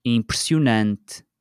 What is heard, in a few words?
"impressionante", portuguese